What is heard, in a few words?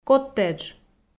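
"коттедж", Komi, female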